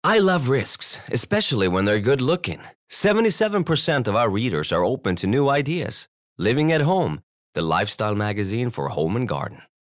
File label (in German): us englisch; LOFT VOICES